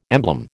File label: "emblem", American